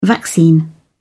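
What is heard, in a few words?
"vaccine", British English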